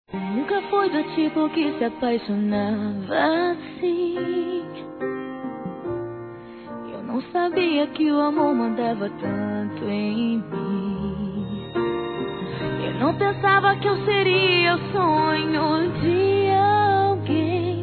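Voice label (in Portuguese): ROMANTICA ESÃO SEPARADOS, Telemensagens, Românticas masculino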